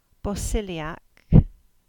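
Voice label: "Bosiliack", English; Bosheulyek 1245 (Gover, 1948)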